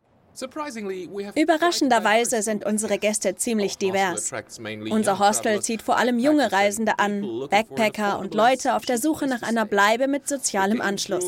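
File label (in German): Overvoice, Sprecherin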